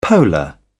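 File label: "polar", British English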